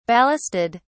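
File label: "ballasted", British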